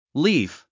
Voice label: "LEAF", English; english sounds review quiz